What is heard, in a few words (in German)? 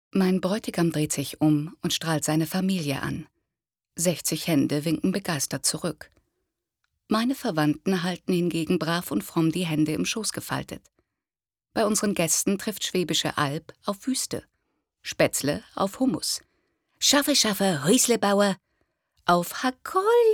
Casting Hoerbuch Text